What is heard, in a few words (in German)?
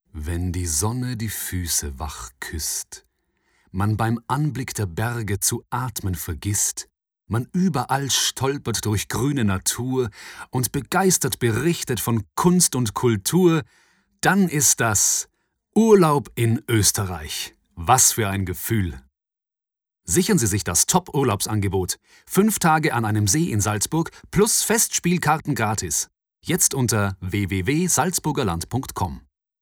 Werbung Oesterreich